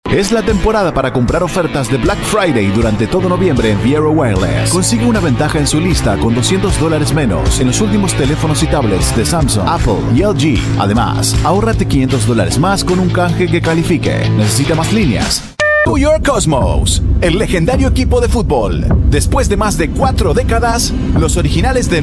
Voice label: spanish voice over male commercial